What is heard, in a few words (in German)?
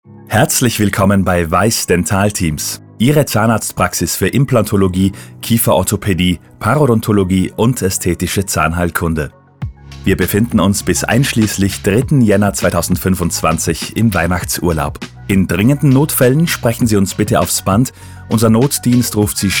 Telefonansage Weihnachten mit Musik; WYSS-Dental; Weihnachten 2024